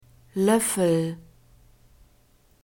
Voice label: "loeffel", german